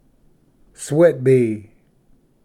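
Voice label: "sweat bee", English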